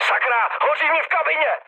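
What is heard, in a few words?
on fire, Czech, mood low; War Thunder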